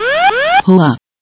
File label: GPWS pull up; rigs of rods